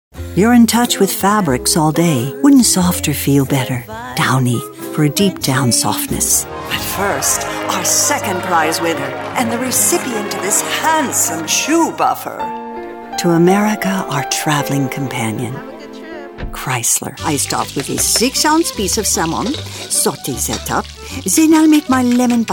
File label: Commercial